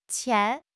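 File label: "qian2", Mandarin Chinese; HSK1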